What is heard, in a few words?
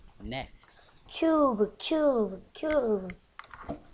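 "cube", spoken word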